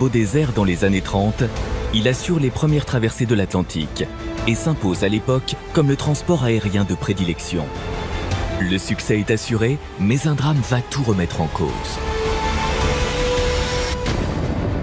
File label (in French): droit technique, comedien voix off homme; ZEPPELIN RETOUR VERS LE FUTUR droit, efficace